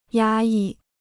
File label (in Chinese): "压抑", Chinese